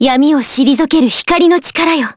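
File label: Vox Skill, voices, Japanese; Maria; kings raid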